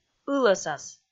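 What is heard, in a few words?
"ылӧсас", Komi, female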